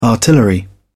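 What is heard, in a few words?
"artillery", English, British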